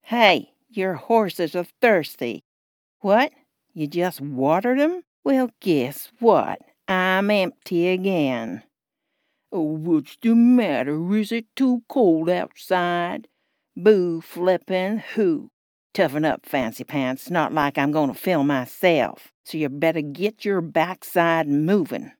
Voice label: Charactor; Bucket